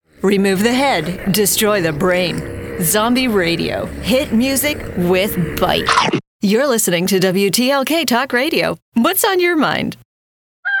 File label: Imaging